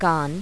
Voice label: gujarati